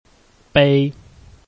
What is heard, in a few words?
"bei1", Chinese